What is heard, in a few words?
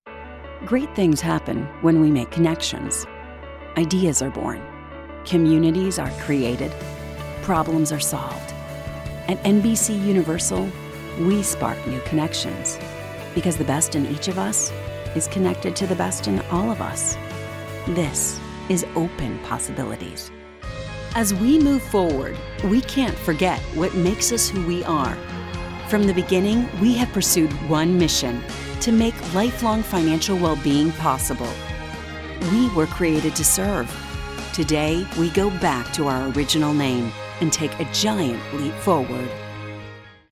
Corporate